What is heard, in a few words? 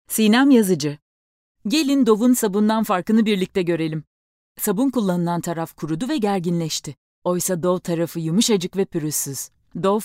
turkish